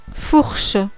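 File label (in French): "FOURCHE", French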